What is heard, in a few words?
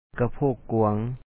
"kapho1ok kua1N", Lao; wide hips